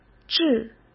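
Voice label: "制", Mandarin Chinese; chinese-flashcards; hsk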